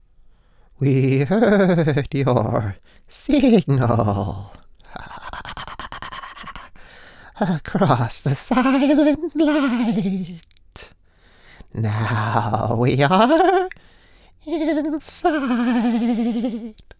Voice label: character Alien Hivemind

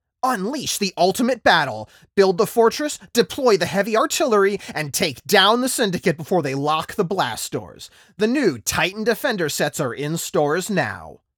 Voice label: character High Energy Toy Commercial

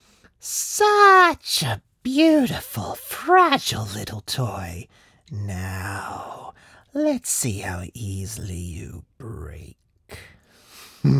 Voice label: character Slimy Villain

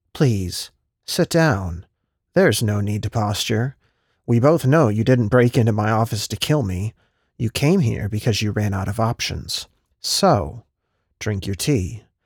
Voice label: character Cold and Calculating

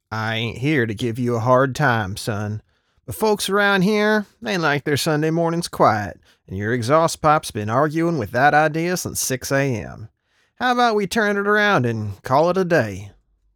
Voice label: character Southern Sheriff

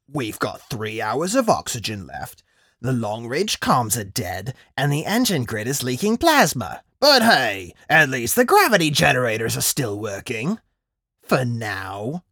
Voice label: character Grizzled Veteran

